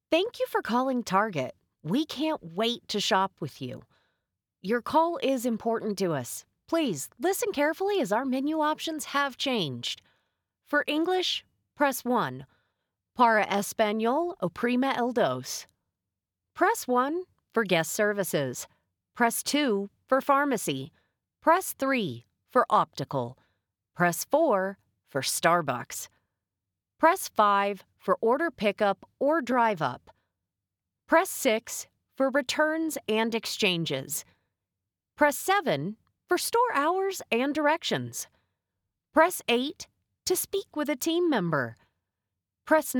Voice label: IVR; Target